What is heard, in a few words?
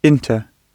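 "into", British English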